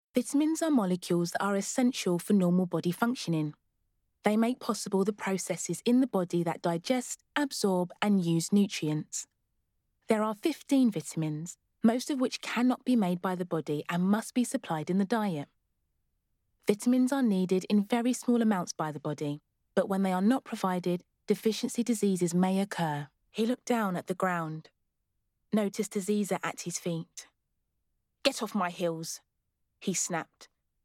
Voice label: narration voice